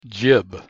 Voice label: pronunciation en jib